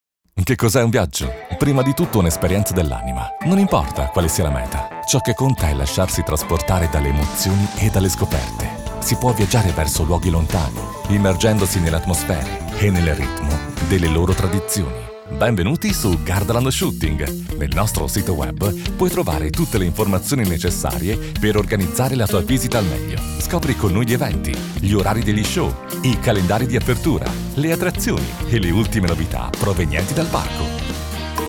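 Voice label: Italian male Commercial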